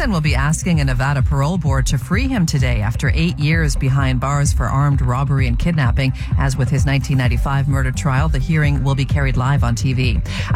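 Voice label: News, Voice; News Anchoring; Radio Broadcast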